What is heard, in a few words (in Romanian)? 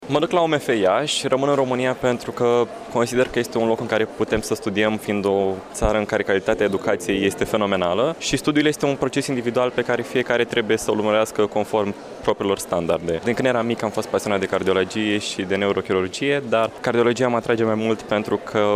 vox pop elevi a 12 a; iasi distinctii pentru elevii care au obtinut media 10 la absolvire